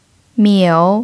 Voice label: "miu3", chinese pinyin